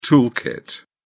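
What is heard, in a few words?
"tool kit", British English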